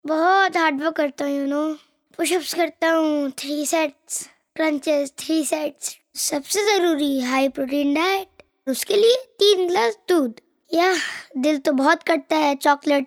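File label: child Hindi; motherpride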